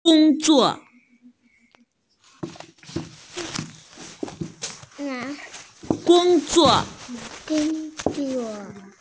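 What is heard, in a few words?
"work", Mandarin Chinese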